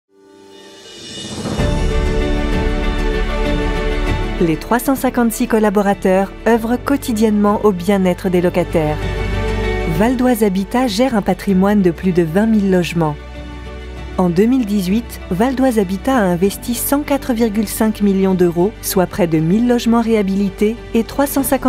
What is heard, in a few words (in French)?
Institutionnel; Val d'Oise Habitat